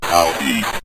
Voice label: south East, Synth Voice; Kenney's Sound Pack